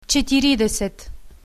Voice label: forty, bulgarian, numbers

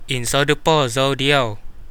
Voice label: go away, kadazan

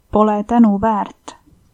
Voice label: you're welcome, estonian

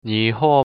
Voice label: hello, hakka

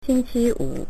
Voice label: friday, mandarin

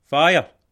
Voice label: fire scots

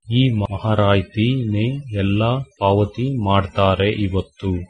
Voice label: pay, kannada

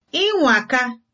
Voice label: congratulations, igbo

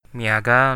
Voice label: you're welcome, Central Dusun

